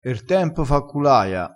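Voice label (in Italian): "er tempo", vocabolario dialettale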